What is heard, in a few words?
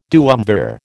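"duumvir", American English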